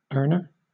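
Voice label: "earner", British English; pronunciation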